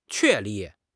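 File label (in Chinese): "确立", Chinese; HSK6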